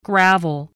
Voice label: "gravel", voice